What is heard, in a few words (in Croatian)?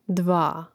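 "dva", hrvatski; mreznik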